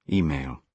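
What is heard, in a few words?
"email", British English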